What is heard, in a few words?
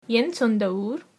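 I'm from, Tamil, female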